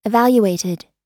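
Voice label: "evaluated", US English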